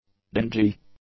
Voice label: "dingy", english; adjectives